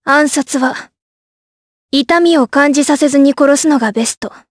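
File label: vox dialogue, voices, Japanese; Tanya; kingsraid audio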